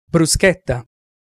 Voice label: "bruschetta", Italian Pronunciations

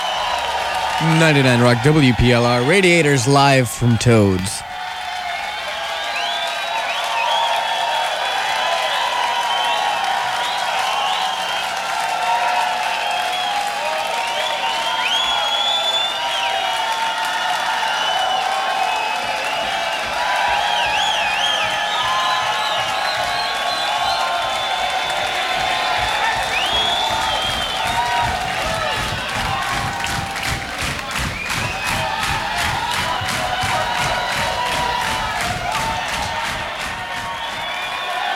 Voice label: Station ID + crowd; WPLR

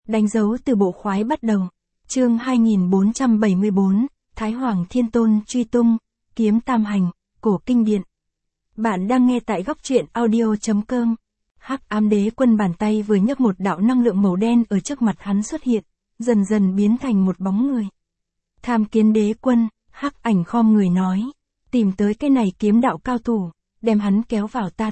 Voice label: vi-VN Standard; danh dau tu bo khoai bat dau

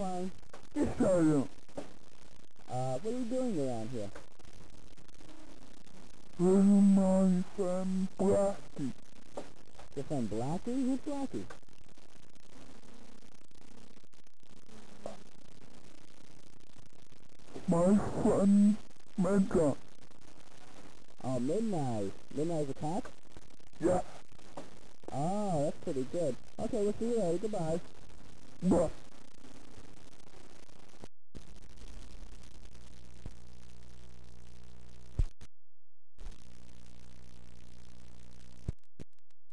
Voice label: big bear conversation

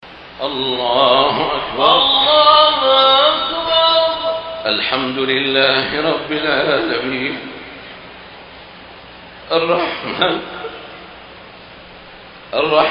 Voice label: Al-Fatihah Emotional; Shaikh Shuraim

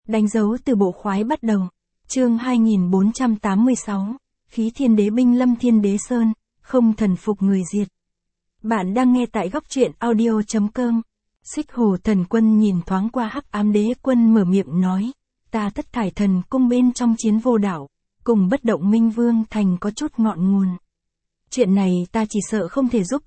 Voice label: vi-VN Standard; danh dau tu bo khoai bat dau

